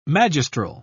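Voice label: "magistral", British English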